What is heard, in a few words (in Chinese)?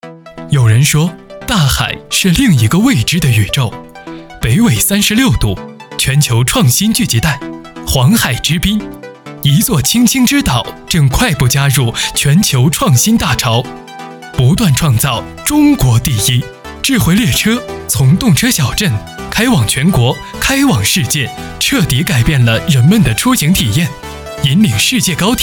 轻快